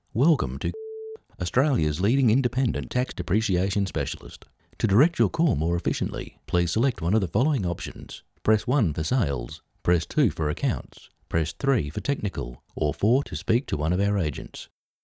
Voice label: phone system

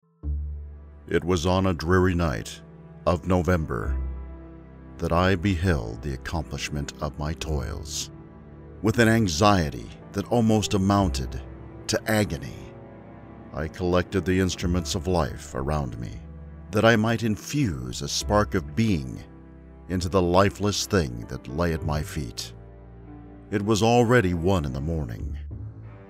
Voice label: Audio Book

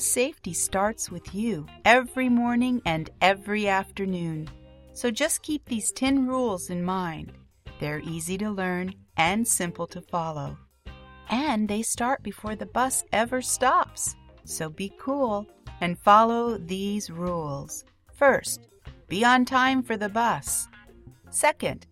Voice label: eLearning for kids

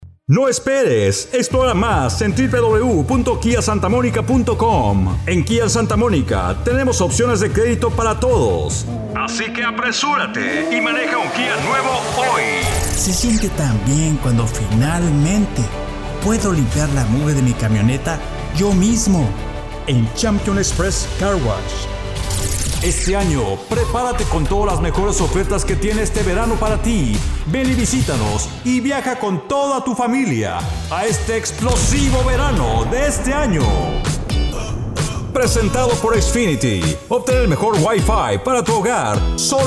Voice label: Spanish

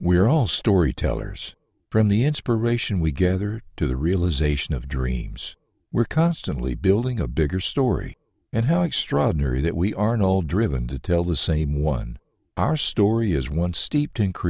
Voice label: Dry Voice

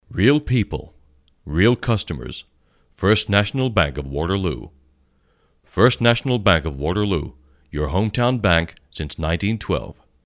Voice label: American Advert

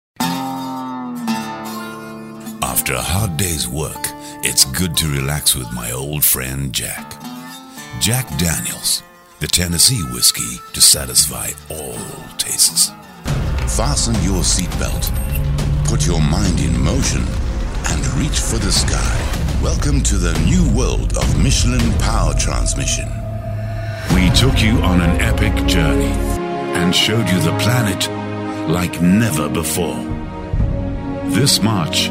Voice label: TV Commercial

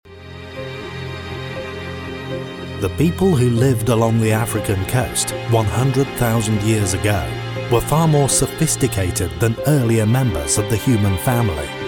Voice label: Documentary

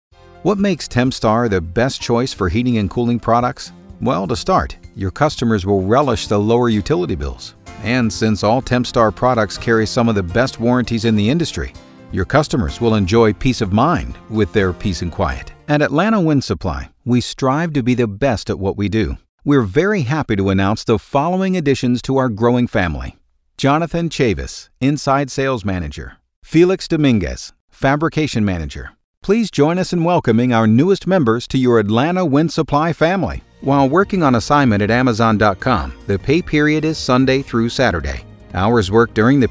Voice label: On Hold IVR

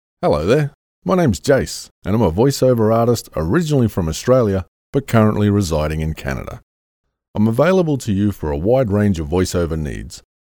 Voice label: Voice over reel

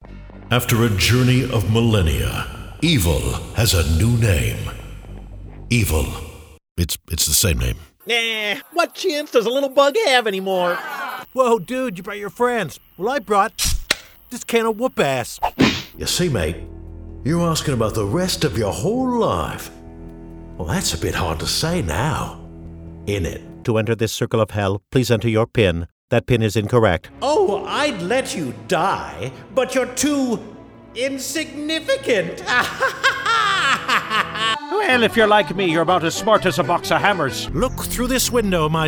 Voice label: Animation

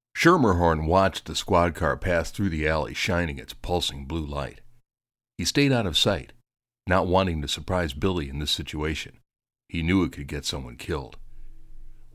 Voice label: audiobook

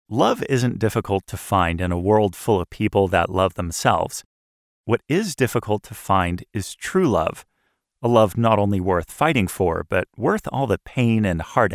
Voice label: AUDIOBOOK NARRATION

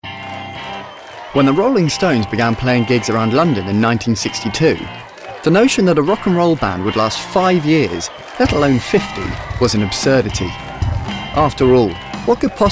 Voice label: NARRATIVE

